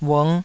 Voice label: "ung5", taiwanese